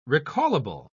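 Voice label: "recallable", British English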